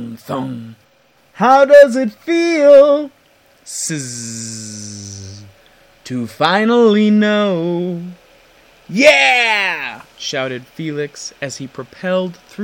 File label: Driving Home From the Doctor with Car Effect